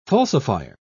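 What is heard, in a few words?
"falsifier", English, British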